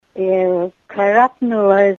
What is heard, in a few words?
i female snatched him, Ninilchik Russian; Ya iwó karápnula.; karápn'it' v. snatch, grab